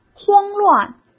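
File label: "慌乱", Mandarin Chinese; chinese-flashcards; hsk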